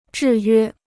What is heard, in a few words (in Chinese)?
"制约", Chinese